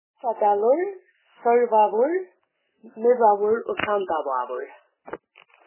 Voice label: Faroese places